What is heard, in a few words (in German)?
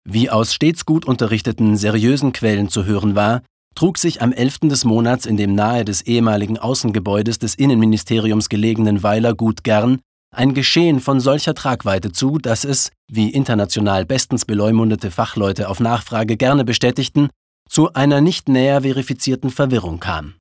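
commentary, Trocken, Neutral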